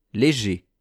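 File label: "leger", French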